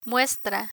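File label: "muestra", Spanish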